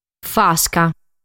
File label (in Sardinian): "Fasca", sardu